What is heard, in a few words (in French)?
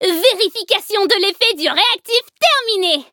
victory, fr; cookie run